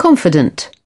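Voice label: "confident", British English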